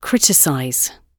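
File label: "criticize", British English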